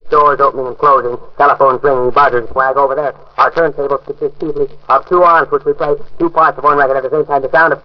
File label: ha chuck chooden nee cold de hack, Voice gibbrish